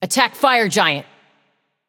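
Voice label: attack f, female; smite; eset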